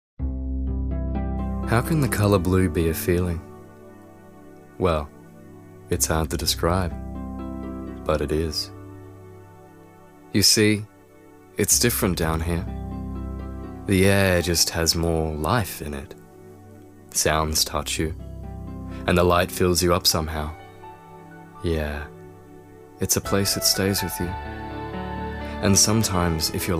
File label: Voice Over